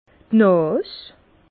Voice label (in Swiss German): "nas", Bas Rhin; le nez; vin